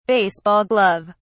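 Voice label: "baseball glove", British English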